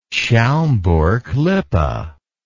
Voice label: "schaumburg lippe", English